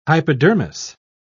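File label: "hypodermis", British English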